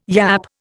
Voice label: "yapp", Indian